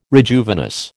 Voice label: "rejuvenesce", American

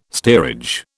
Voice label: "steerage", British

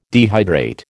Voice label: "dehydrate", American

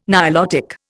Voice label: "Nilotic", Indian

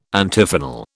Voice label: "Antiphonal", British

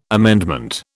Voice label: "amendment", British

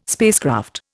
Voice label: "spacecraft", Indian

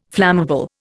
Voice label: "flammable", Indian

